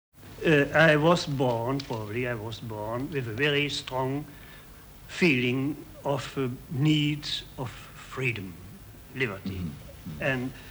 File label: Interview; 1960s; john zorn